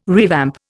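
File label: "revamp", Indian English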